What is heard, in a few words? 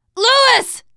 name louis, teengirl, voice; player; survivor